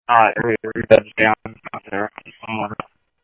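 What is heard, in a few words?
Simulcast Chandler Patrol A, scanner; Chandler-Ptrl A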